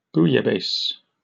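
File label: "bouillabaisse", British English; pronunciations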